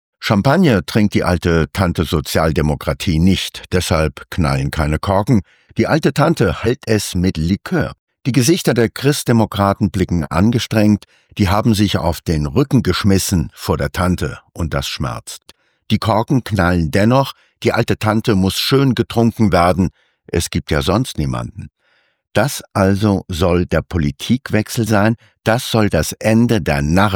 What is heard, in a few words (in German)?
Kommentar; Kontrafunk aktuell